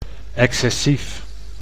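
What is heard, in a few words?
"excessief", Dutch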